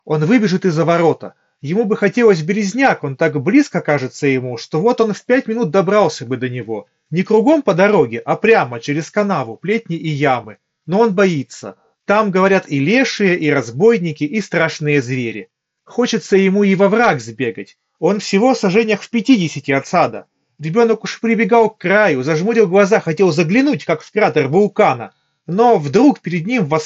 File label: Mic; redmi headphones neo